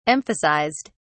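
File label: "emphasized", British English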